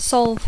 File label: "solve", English; Dacco